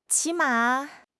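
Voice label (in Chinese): "起码", Chinese; HSK6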